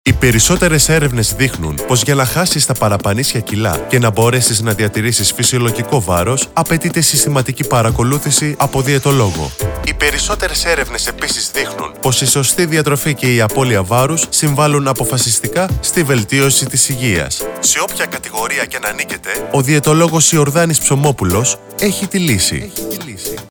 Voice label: E-Learning; Greek